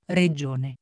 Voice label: "regione", Italian